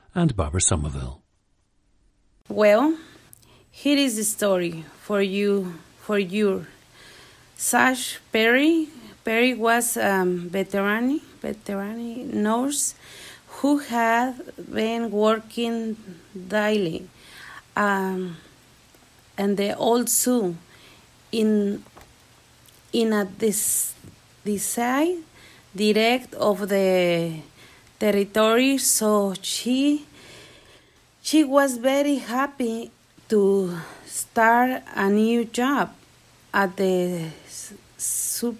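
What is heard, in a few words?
zacatecas, dialects of English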